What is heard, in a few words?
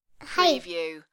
Toddler Hi